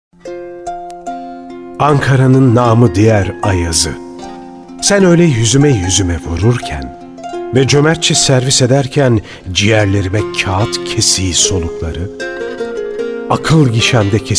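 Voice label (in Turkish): şiir, Turkish; ankara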